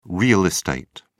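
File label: "real estate", British English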